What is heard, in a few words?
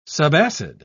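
"subacid", British English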